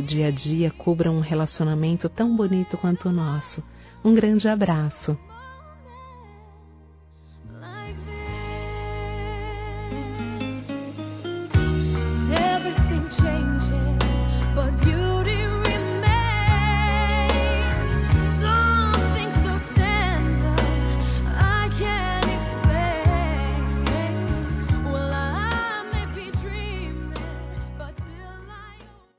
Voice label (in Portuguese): Amizade Feminino, Telemensagens; Saudades